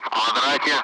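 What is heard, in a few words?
Speech, Russian, Target grid; Allied Flight; DCS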